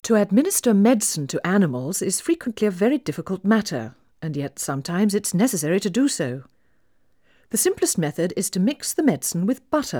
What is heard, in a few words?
Female speech English Mono output, 4bit